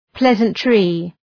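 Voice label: "pleasantry", English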